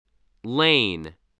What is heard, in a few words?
"lane", English